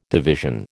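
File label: "division", American English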